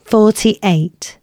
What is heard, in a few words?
announcements, female